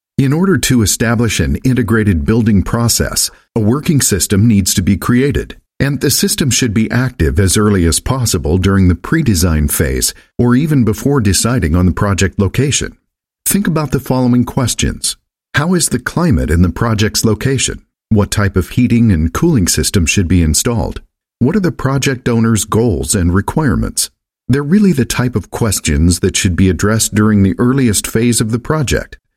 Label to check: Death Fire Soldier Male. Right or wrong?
Wrong